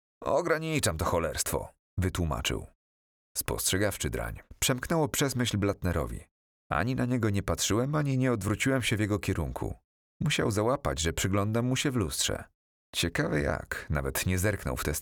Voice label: polish audiobooks

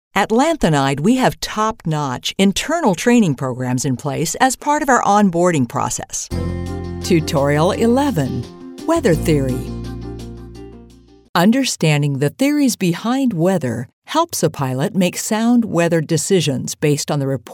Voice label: english american e-learning

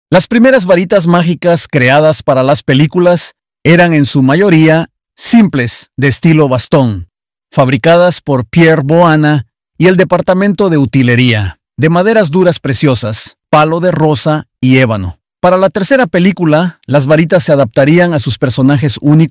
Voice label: spanish latin american audio guides

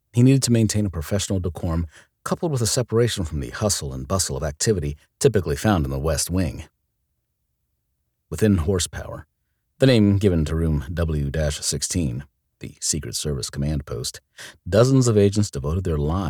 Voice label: english american narration

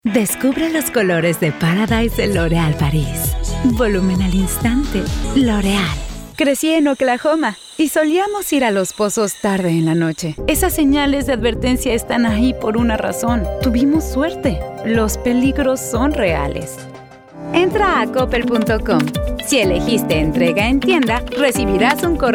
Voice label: spanish mexican commercial; Spanish (Latin American) Spanish (Mexican); United States

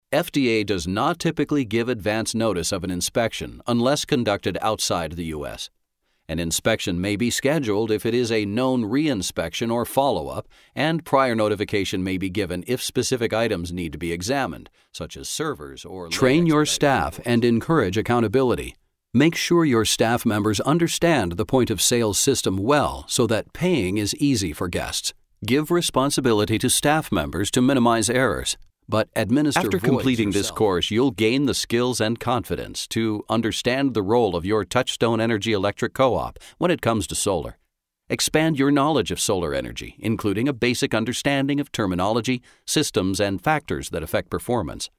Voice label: english american training e learning

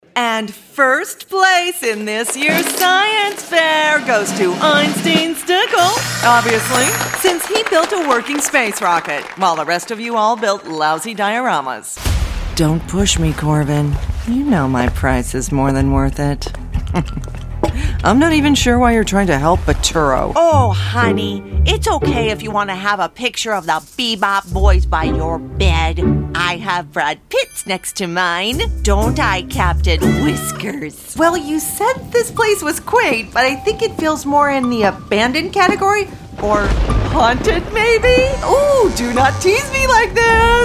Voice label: english american animation

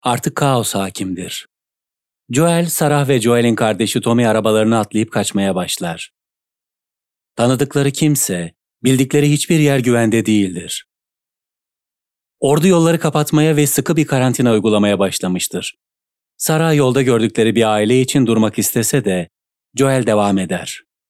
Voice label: turkish audiobooks

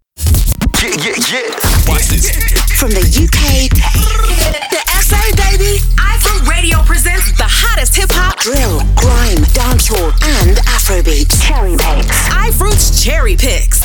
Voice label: english british video games

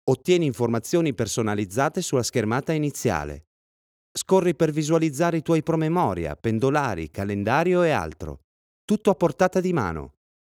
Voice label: Italian corporate

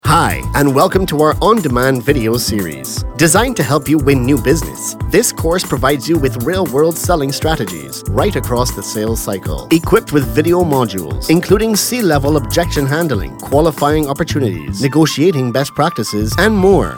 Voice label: english international e-learning

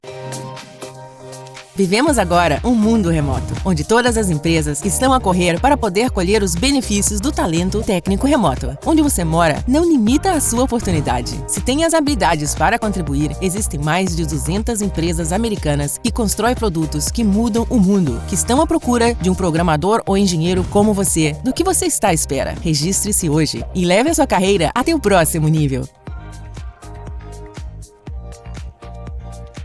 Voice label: portuguese brazilian podcasts

